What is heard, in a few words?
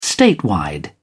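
"statewide", British English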